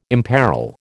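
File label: "imperil", American English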